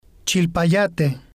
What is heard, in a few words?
"Chilpayate", Spanish